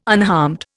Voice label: "unharmed", Indian

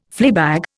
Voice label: "fleabag", Indian

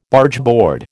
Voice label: "Bargeboard", American

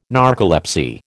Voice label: "narcolepsy", American

